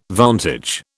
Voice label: "vantage", British